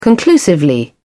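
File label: "conclusively", British English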